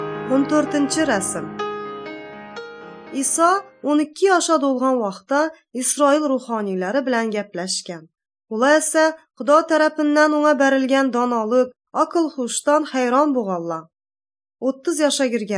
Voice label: uzbek northern kipchak; good news

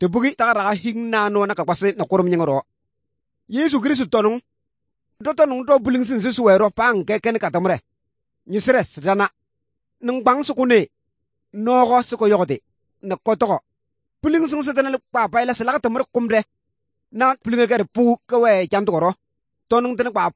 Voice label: good news, kabiye bible

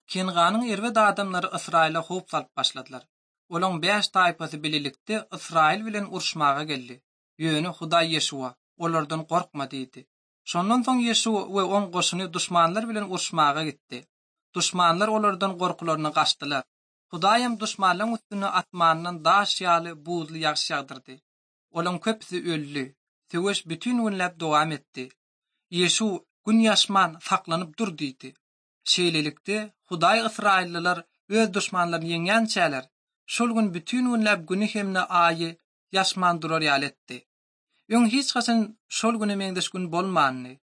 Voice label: turkmen, ot drama

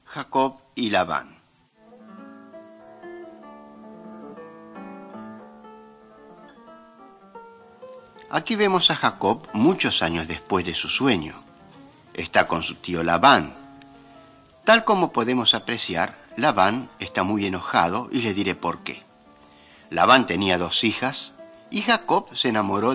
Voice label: mighty men of god spanish latin america

